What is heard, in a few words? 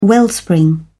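"wellspring", British English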